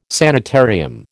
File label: "sanitarium", American